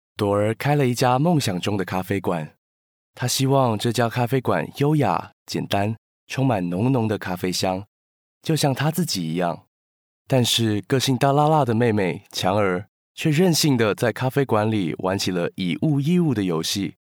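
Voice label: Taiwan, male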